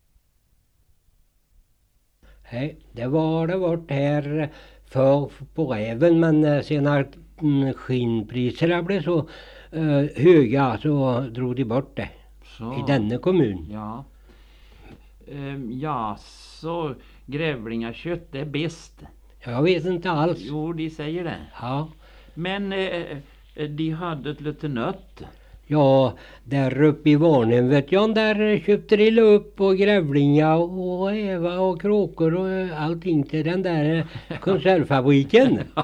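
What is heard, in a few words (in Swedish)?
Kyrkefalla Västergötland, Svenska sagor, dialekter; Folkminnen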